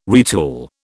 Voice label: "retool", British

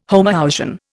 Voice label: "homoousian", Indian

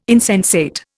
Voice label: "insensate", Indian

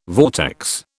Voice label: "vortex", British

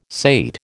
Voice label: "sate", American

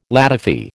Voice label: "ladyfy", American

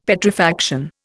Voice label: "Petrifaction", Indian; Dictionary

